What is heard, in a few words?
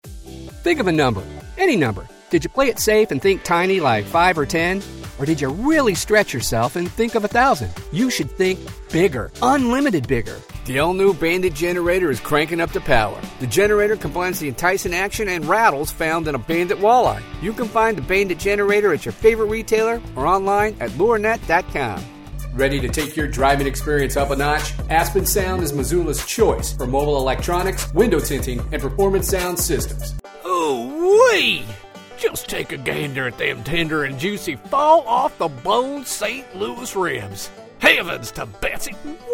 Commercial High Energy